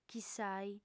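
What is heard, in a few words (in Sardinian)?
"chissai", female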